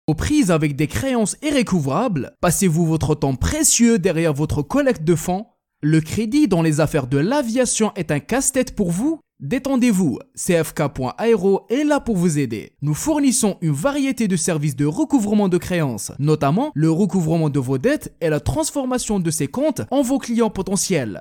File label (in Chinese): 阿拉伯语男 外语 小语种